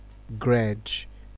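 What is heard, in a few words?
"gredge", Nigerian Pidgin, male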